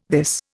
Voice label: "this", Indian